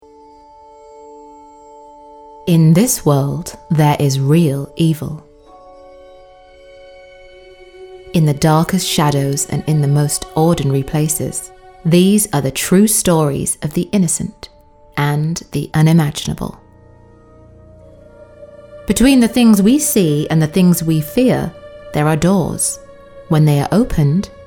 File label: Female English Video Game; A Haunting Tale